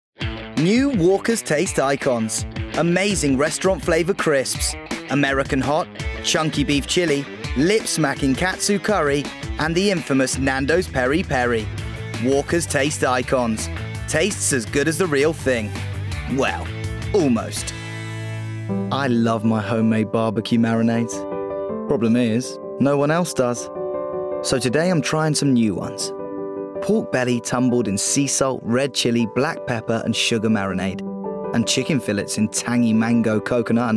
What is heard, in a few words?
VO Reel